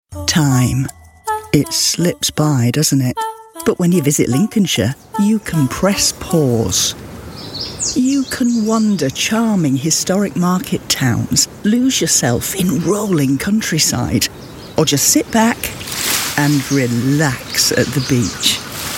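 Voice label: radio advert, Professional Mature Voice Over; visit lincolnshire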